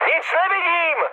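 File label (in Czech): Czech searchlight, mood high; speech; War Thunder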